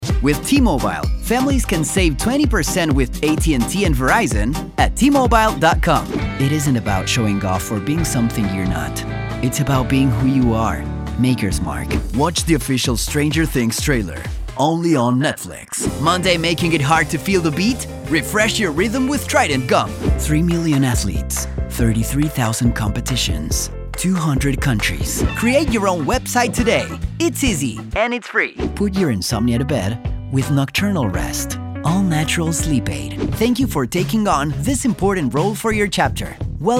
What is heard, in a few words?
english